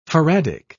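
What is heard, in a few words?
"faradic", British English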